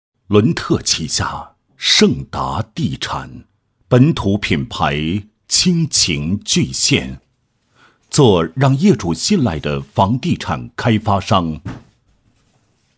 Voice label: 男 房地产 住宅小区, 配音; 乐府江南住宅小区

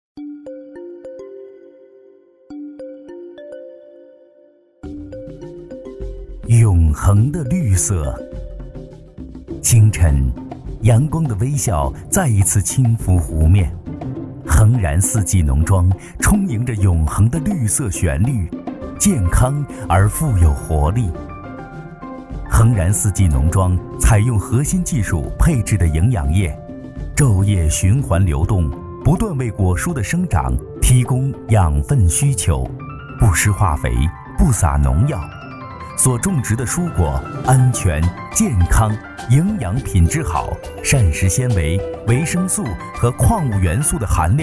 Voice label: 男, 纪录片, 舌尖范; 永恒的绿色

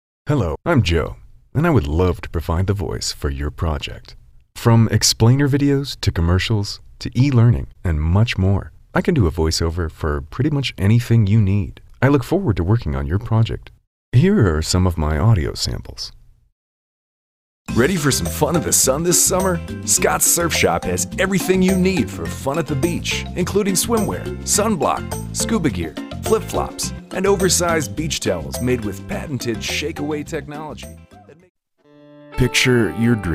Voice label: 美 外籍英男 自我介绍

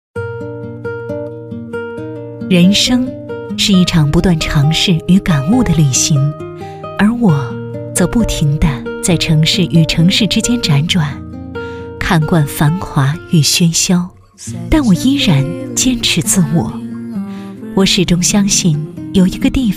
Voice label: 独白 自然内心

